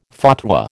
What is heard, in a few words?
"fatwa", American